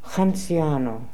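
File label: "Hanziano", pronunciations; Fourth Way; Beelzebub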